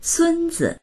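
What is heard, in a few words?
"sun1zi", Mandarin Chinese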